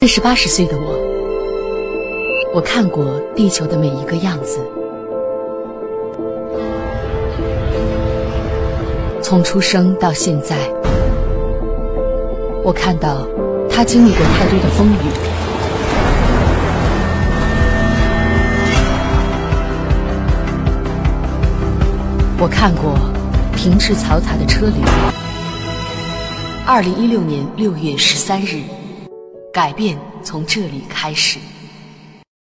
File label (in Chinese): 女 公益广告